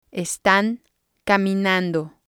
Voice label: "estan caminando", spanish

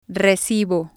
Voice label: "recibo", spanish

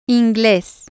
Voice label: "english" Spanish

